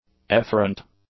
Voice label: "efferent", English; adjectives

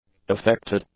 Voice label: "affected", English; adjectives

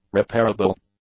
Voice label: "repairable", English; adjectives

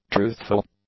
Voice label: "truthful", English; adjectives

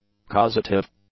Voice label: "causative", English; adjectives

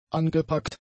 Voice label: "angepackt", German; adjectives

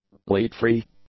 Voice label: "lead-free", english; adjectives

